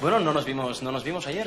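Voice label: Voice Acting es Male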